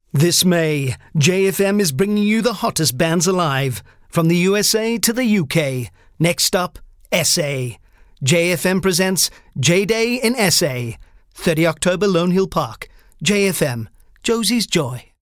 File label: English Promo